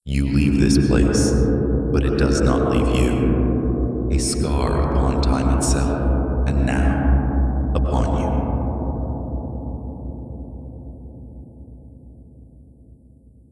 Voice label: narration egypt; kz realmshift